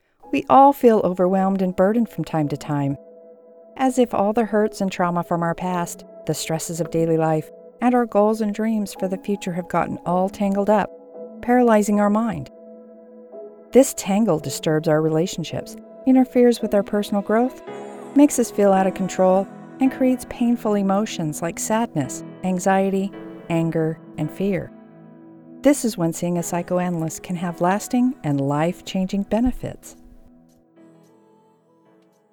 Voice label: explainer videos